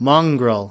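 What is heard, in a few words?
"mongrel", us, male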